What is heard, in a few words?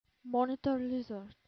"monitor lizard", English